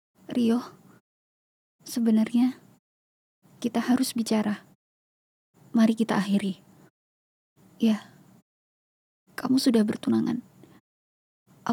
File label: CHARACTER INDONESIA